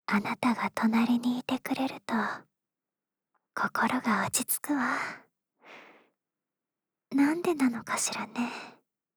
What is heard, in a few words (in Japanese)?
ささやきボイス; 心が落ち着くわ。; 憂妃の共依存癒やしささやきボイス